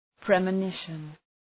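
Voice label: "premonition", English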